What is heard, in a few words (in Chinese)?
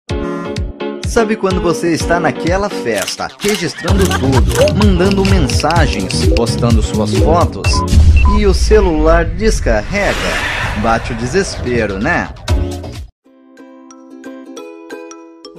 葡萄牙语男 手机产品介绍